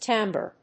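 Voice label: "tambour", English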